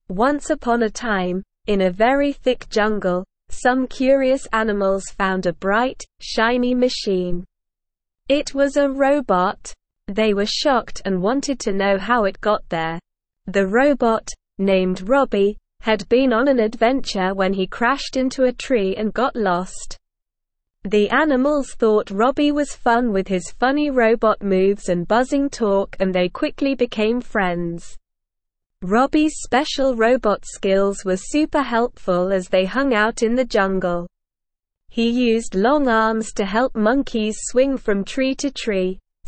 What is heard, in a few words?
ESL Short Stories for Kids Upper Intermediate SLOW Reading; The Robot in the Rainforest